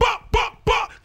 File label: "buck buck buck", ooh ahh